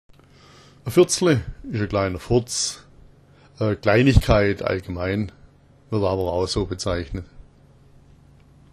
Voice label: firzle, schwaebisch

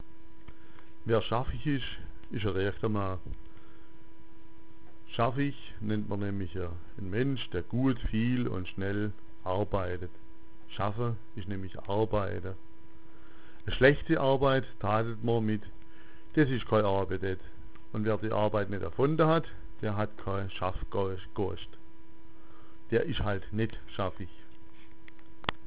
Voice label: schaffich, schwaebisch

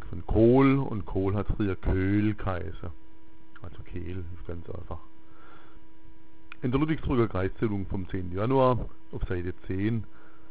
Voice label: "kehlkraut", schwaebisch

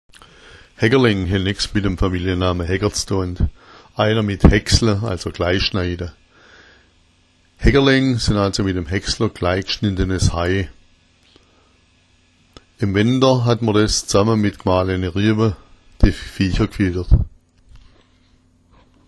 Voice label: hckerleng, schwaebisch

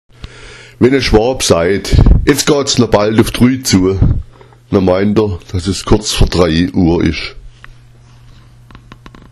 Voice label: drui zua, schwaebisch; Etz goht’s no bald uff drui zua; es ist kurz vor drei Uhr

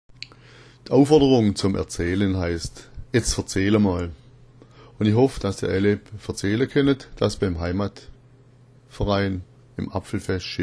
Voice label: "verzaehla", schwaebisch; Die Aufforderung zum Erzählen heißt: etzt verzähl amol

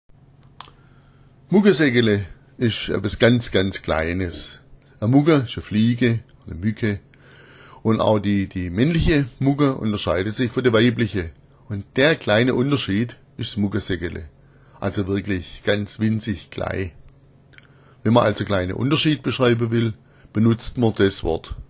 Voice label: "muggaseckele", schwaebisch; A’ Muggaseckele ist sehr, sehr klein.

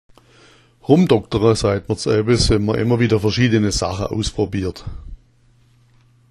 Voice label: romdoktera, schwaebisch; Als romdoktera bezeichnet man immer wieder verschiedene Sachen auszuprobieren.

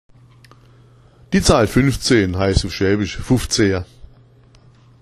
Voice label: fuffzea, schwaebisch; Die Zahl 15 heißt auf schwäbisch fuffzea.

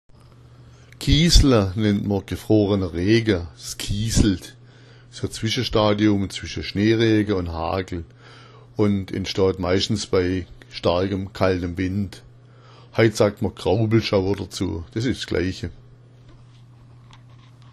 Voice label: "kiesla", schwaebisch; Kiesla nennt man gefrorenen Regen – s‘ kieslt

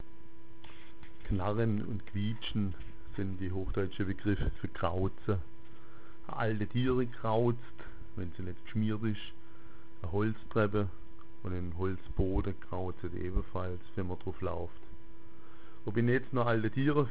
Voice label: kraoza, schwaebisch

